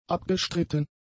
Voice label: "abgestritten", German; adjectives

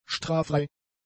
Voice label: "straffrei", German; adjectives

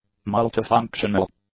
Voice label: "multifunctional", English; adjectives

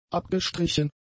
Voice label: "abgestrichen", German; adjectives

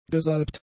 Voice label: "gesalbt", German; adjectives

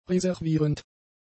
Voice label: "reservierend", German; adjectives

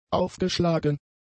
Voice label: "aufgeschlagen", German; adjectives

